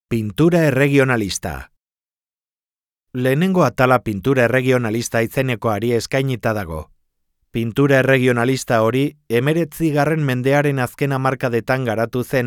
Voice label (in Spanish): Audioguia; BBVA